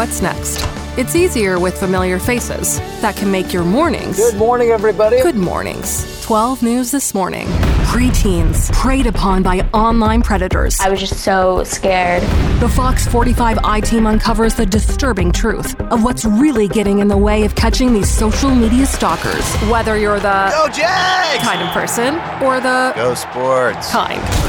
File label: english canadian tv shows